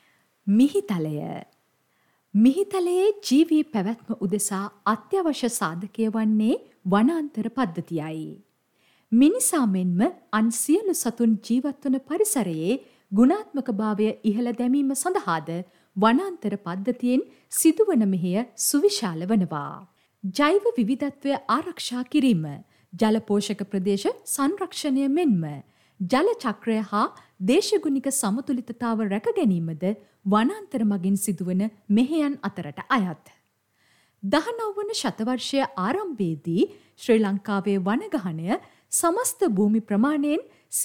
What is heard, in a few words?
FEMALE NARRATION